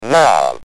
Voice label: "Now", Speak & Spell UK Speech

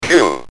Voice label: "Q", Speak & Spell UK

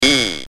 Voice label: "E", Speak & Spell UK

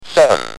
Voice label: "7", Speak & Spell UK

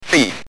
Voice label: "Thief", Speak & Spell UK

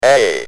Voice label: "A", Speak & Spell UK

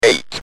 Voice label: "8", Speak & Spell UK

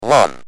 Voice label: "1", Speak & Spell UK Speech

